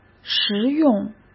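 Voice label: "食用", Mandarin Chinese; chinese flashcards; hsk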